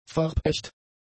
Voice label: "farbecht", German; adjectives

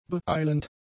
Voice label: "beeilend", German; adjectives

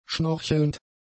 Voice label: "schnorchelnd", German; adjectives

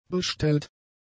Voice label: "bestellt", german; adjectives

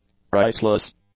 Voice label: "priceless", english; adjectives

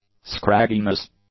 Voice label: "scragginess", English; nouns

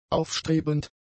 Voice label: "aufstrebend", German; adjectives

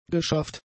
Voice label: "geschafft", German; adjectives

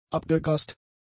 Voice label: "abgegrast", German; adjectives

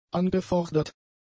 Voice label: "angefordert", German; adjectives

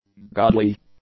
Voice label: "godly", english; adjectives

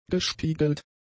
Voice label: "gespiegelt", German; adjectives

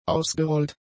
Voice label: "ausgerollt", German; adjectives

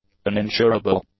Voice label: "uninsurable", English; adjectives

